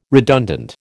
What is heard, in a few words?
"redundant", American